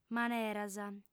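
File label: "maneras", female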